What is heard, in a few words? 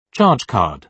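"charge card", British English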